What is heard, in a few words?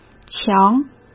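"强", Mandarin Chinese; chinese-flashcards; hsk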